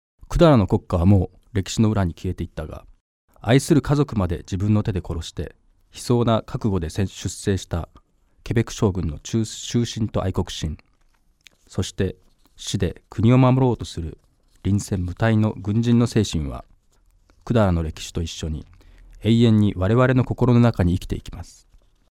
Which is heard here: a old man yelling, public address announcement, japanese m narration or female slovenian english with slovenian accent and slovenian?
japanese m narration